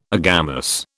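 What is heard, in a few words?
"agamous", British English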